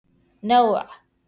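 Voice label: "نوع" Arabic; type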